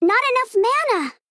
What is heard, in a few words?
Female Gnome ERROR